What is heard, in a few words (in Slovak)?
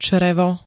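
"črevo", Slovak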